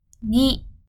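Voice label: "time に", Japanese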